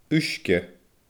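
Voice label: "uisge", Scottish Gaelic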